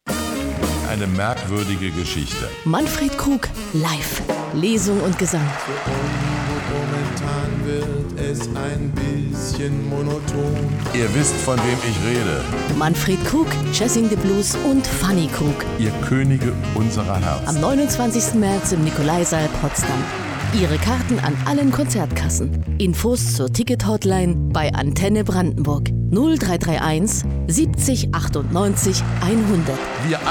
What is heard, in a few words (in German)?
deutsch, Telefonansagen, weiblich; Sprecherin